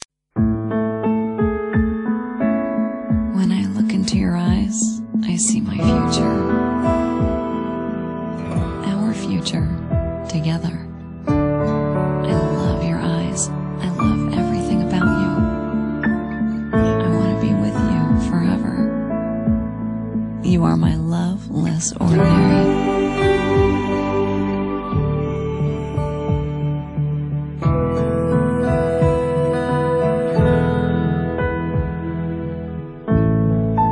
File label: woman american